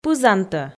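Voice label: "пызантӧ" Komi, female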